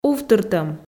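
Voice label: "увтыртӧм", Komi, female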